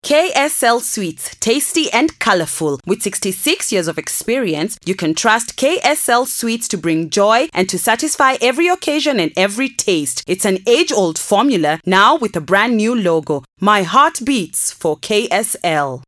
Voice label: Radio Commercial; KSL